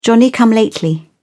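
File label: "johnny come lately", British English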